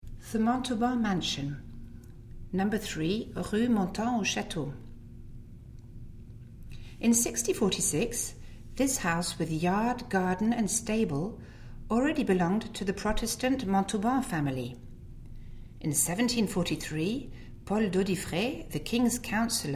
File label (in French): ANGLAIS; Hotel de Montauban